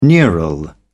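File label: "neural", British English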